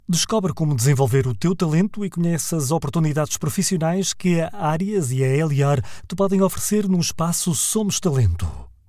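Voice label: Portuguese, male, elearning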